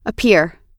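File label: "appear", US English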